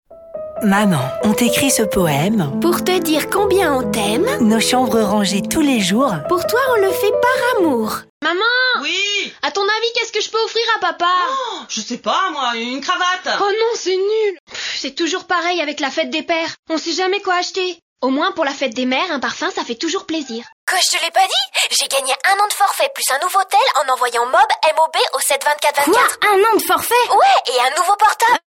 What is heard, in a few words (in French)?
Ados voix